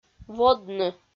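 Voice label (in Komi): "водны", female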